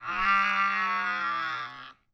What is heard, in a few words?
Evil Telepathic Girl; Vocals - Humanoid